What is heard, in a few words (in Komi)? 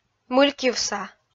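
"мылькйывса", Komi, female; kpv audio dict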